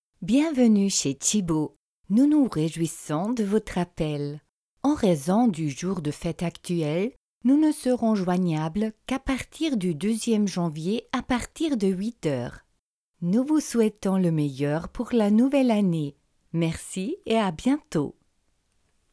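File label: French; tchibo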